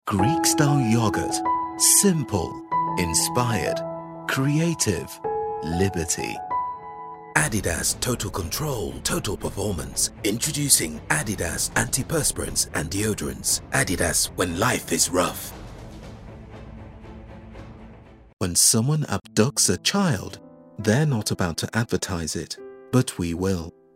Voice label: Commercial